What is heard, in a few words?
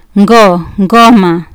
"ngo" "ngoma", Kiswahili